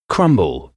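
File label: "crumble", English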